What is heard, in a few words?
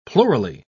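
"plurally", British English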